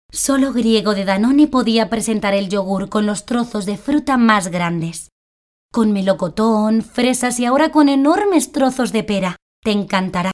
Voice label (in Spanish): voz, actriz de doblaje; publicidad